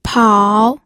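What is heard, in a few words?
"pao", Mandarin Chinese, third tone; pinyin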